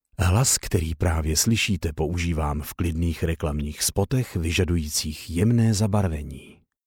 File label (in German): Sprecher Native speaker